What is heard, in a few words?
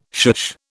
"shush", British